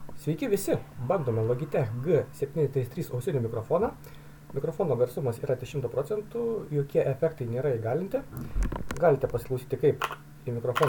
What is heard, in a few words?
mic; Logitech G733